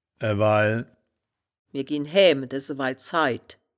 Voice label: "ewail", woerterbuch, Wittlich

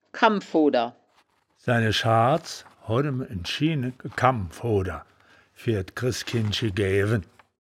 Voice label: "kamm fuder", wittlich

